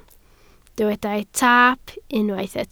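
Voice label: "taap" Welsh, spoken word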